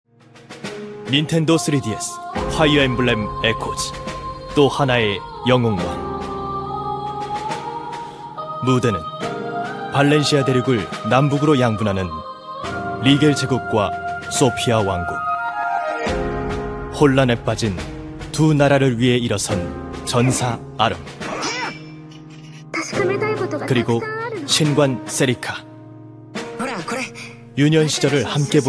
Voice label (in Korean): 진중한 차분한 주인공